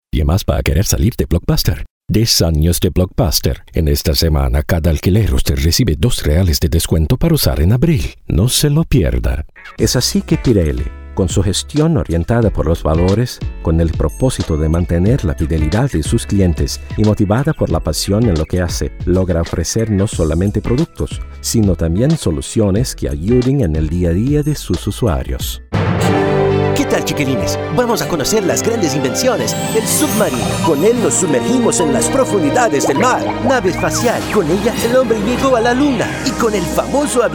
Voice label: Spanish